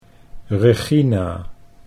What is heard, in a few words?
"Regina" Dutch